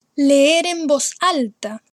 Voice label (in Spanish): leer en voz alta; span 1103 intermediate spanish; Module 2 Expressions